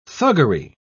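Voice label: "thuggery", British English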